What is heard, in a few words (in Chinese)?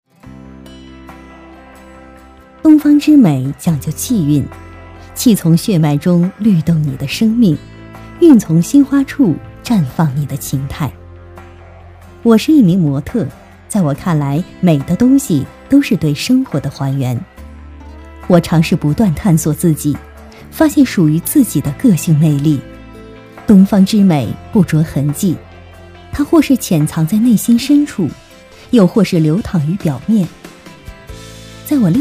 口红广告